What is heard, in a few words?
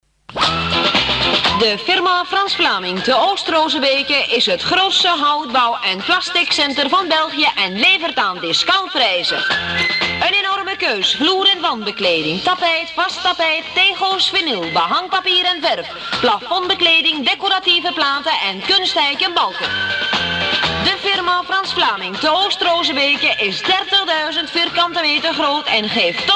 commercial